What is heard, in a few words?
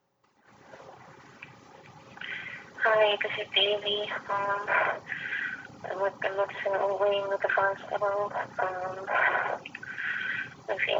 Voice Mail